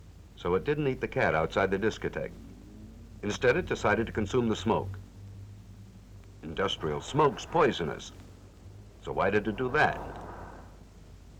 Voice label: stutter; Gengo